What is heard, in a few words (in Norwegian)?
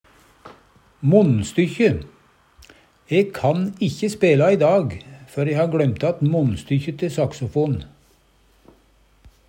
monnstykkje, Numedalsmål